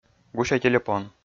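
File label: "гуся телепон", Komi-Zyrian, male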